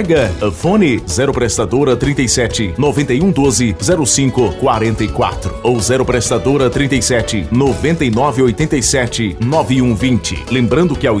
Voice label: spot churrasquinho, locutor; churrasquinho do moura

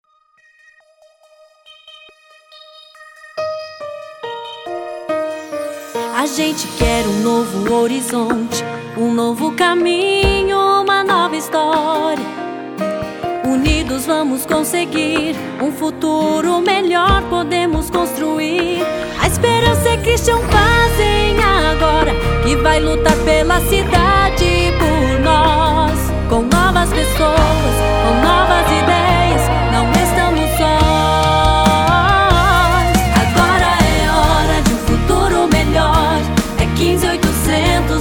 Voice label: jingles politicos

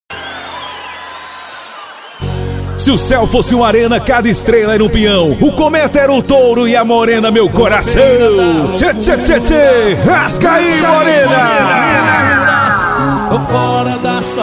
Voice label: locutor

